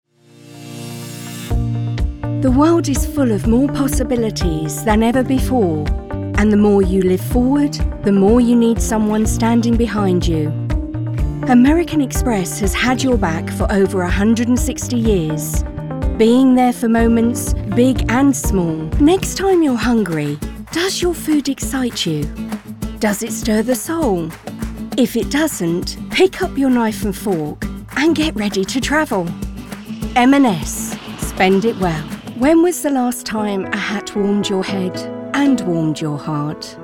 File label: Commercial